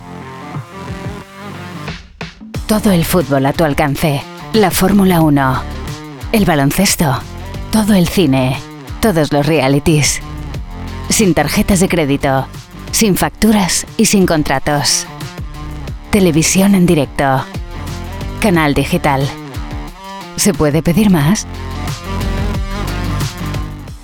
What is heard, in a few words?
spanish tv shows